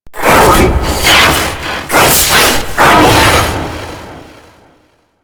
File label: Golem Boss Speak Game Taunt; Warframe; Taunts